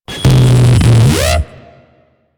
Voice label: Jackal Redux Attack, Enemies, Barks; Warframe; Corpus

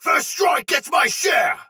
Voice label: Sight Lost Shout Soldier Sword Agent Male, Battle; Dialog; Barks; Melee; Sinecure

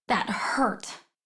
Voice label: Low Health Operator Voice; Operator Mission

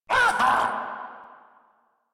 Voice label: Pain Ghoul Saw, en; Grineer Ghoul Sawman

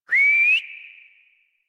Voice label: Horse Sprint Adult; Warframe; Duviri

